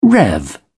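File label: "rev", British English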